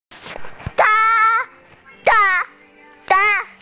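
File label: "zhe2", Mandarin Chinese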